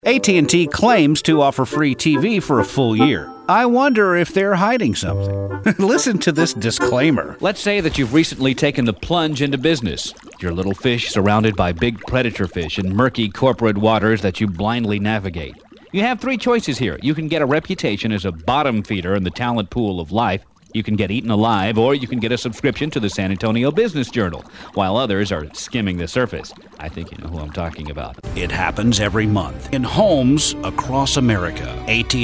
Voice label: English, males